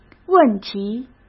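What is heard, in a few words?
"问题", Mandarin Chinese; hsk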